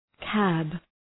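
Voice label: "cab", English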